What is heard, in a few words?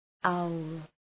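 "owl", English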